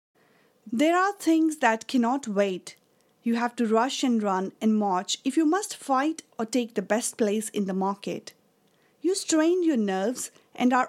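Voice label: female voice, English accent, Hindi; voice demos; GoLocalise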